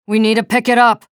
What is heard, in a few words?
chatter negative; nyx; respawn